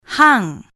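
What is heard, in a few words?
"hang", Malay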